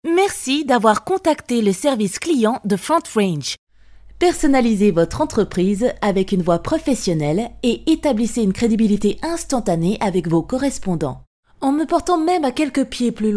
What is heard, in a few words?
french female